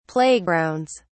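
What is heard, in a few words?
"playgrounds", British English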